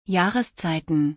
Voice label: Chapter in German

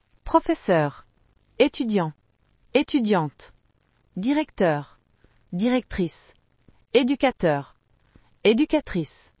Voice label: job in french

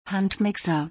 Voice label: Electric mixer in German

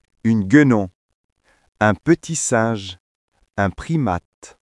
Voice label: monkey in french

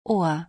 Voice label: Ear in German

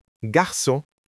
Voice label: Waiter in french

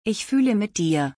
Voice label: Im sorry with all my heart in German; تسلیت گفتن به آلمانی

